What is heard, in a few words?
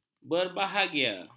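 "berbahagia", Malay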